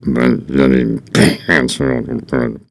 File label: mumble